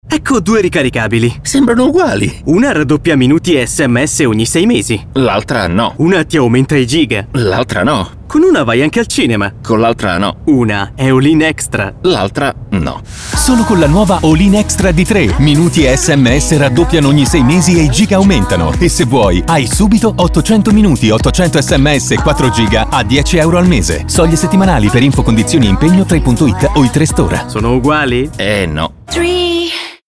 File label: spot radio